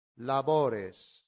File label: "labores", Latin; santillana